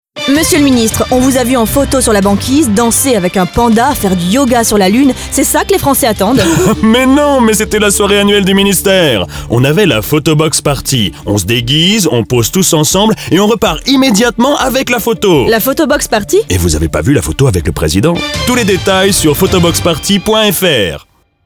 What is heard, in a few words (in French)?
pub personnage; voix off masculine